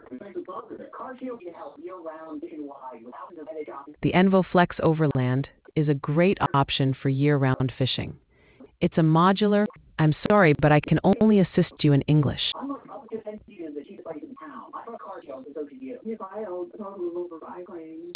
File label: conversation